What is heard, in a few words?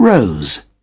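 "rose", British English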